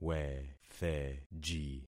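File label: "wetheji", concatenated syllables; Affixing experiment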